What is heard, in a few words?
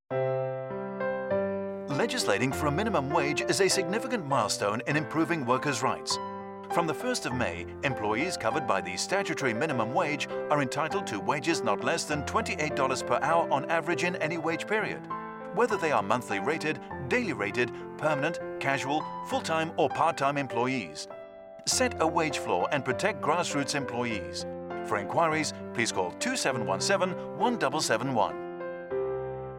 Radio General Public english